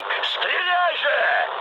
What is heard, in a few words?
attack position, mood high, speech ru